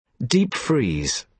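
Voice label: "deep freeze", British English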